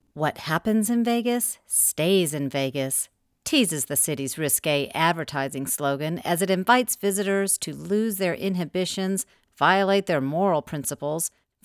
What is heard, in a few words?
Informational; Unquenchable